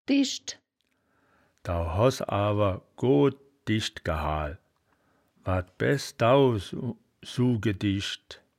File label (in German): "dischd", wittlicher